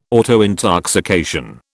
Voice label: "autointoxication", British

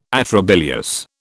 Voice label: "atrabilious", British

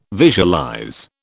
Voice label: "visualize", British

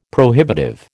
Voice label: "prohibitive", American

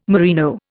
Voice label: "merino", Indian

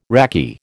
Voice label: "raki", American

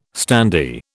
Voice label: "standee", British

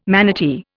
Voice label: "manatee", Indian

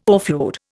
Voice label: "offload", Indian

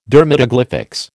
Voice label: "dermatoglyphics", American

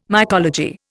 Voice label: "mycology", Indian

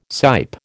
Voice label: "sipe", American

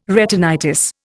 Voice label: "retinitis", Indian

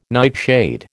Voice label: "nightshade", American

